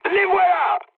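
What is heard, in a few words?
enemy detected, mood high, French; War Thunder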